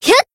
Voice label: Voice attack, jp; flammelapis